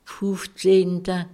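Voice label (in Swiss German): "fufzehnta", Alsatian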